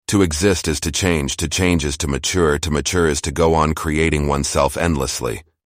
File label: voice Bruce vibrant and baritone